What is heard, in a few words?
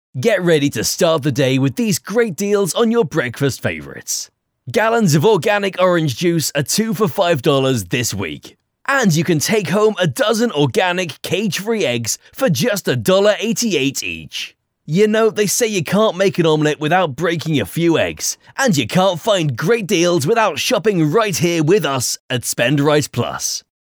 Casual Conversational